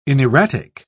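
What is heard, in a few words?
"inerratic", British English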